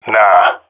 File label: negative, jungle male; vo; agents; Source Model Packs; Counter Strike Model Pack; CS2; jungle male epic